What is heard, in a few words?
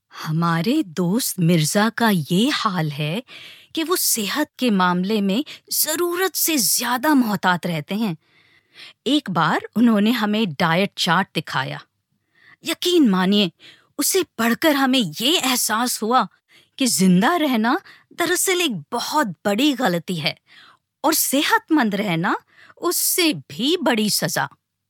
Urdu language